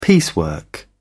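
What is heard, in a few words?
"piecework", British English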